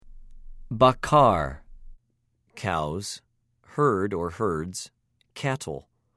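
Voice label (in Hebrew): "בָּקָר", Hebrew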